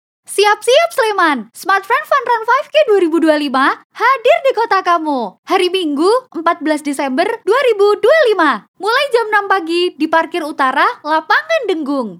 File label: CASUAL INDONESIA